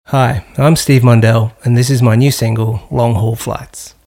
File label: radio intro